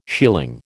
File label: "shealing", American